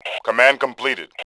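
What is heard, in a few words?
command completed, voice Male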